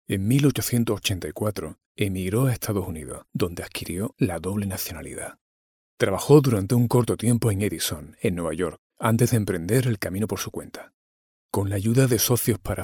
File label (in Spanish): locutor andaluz; locutores de doblaje